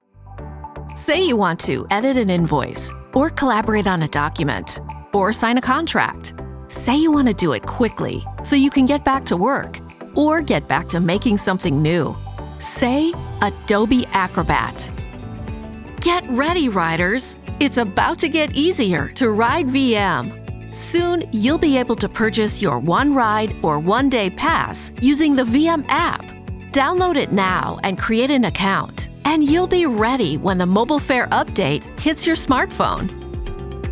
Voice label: Energetic Dynamic